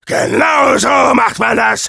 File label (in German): Demoman positive vocalization, de